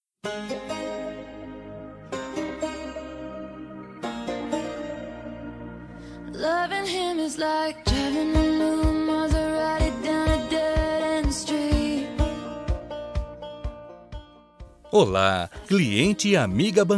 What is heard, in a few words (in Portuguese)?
Telemensagens Empresarial masc; Cliente bancaria; Telemensagens 2017